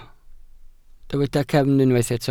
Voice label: "cefn", Welsh